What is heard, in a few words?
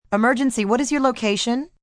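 Emergency Location, Telephone operator, female; Telephone Voice; VoiceBox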